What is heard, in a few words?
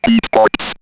Robot Beatbox, robot vox; X-Static Goldmine